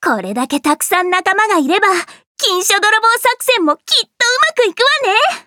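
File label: start, Japanese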